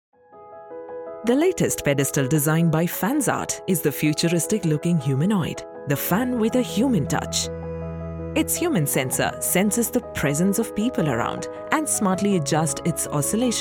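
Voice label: promotional, English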